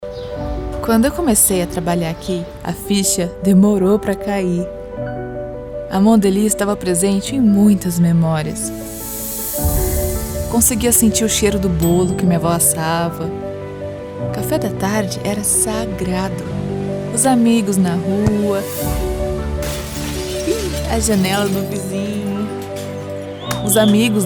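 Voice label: corporate documentary storytelling soft poetic female brazilian portuguese; voice; mondeleez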